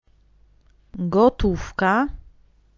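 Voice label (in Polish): "gotówka", Polish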